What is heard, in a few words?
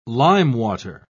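"limewater", British English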